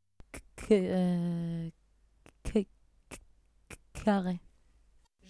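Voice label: mandibular dystonia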